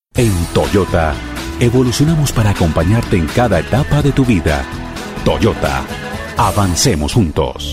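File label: Latin American Spanish Commercial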